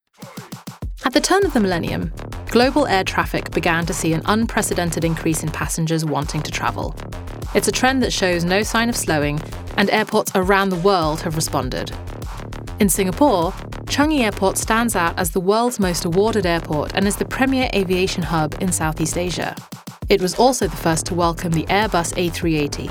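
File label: UK English Documentary Corporate, British English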